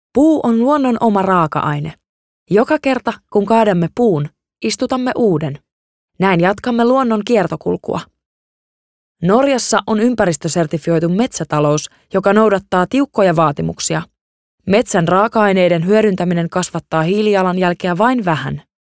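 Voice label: Finnish informative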